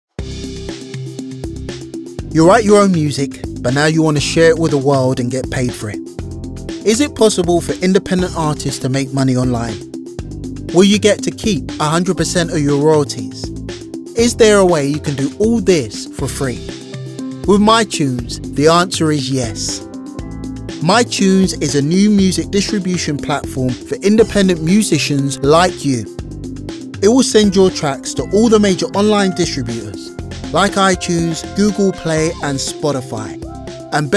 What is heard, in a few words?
Explainer; My Tunes